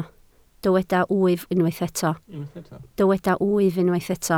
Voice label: "wyf", Welsh